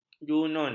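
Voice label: "junun", Malay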